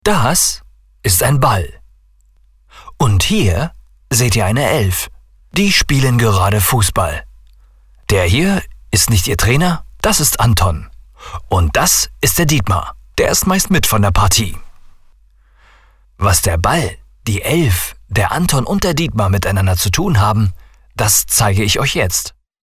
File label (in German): Fremdtake Freundlich